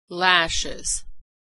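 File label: "lashes", American English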